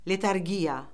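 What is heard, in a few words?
"letargiya", Azerbaijani; Orfoqrafiya Lüğəti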